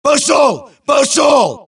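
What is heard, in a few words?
suppress, dialogue, Allies; mohaa; Mission 3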